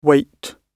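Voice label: "wait", British English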